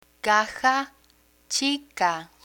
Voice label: "caja chica", Spanish; spanish verbs; learn spanish

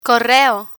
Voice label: "correo", Spanish

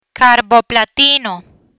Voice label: "Carboplatino", Spanish; cancer medicines

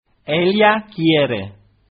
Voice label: "ella quiere", Spanish

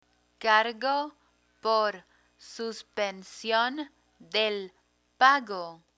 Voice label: "cargo por suspension del pago", Spanish; banking vocabulary

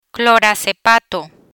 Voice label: "Clorazepato", Spanish; learn spanish

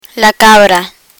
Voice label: "cabra", Spanish; rules gender termination; learn spanish

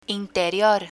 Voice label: "interior", Spanish

